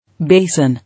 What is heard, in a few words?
"Basin", English